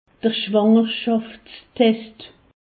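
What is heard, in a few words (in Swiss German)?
"schwangerschaftstest", Alsatian; medecine; test de grossesse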